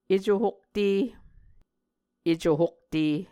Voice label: "eco hokte", muscogee